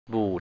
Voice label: "bù:t" Thai, 'to be rancid'; บูด